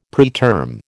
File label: "preterm", American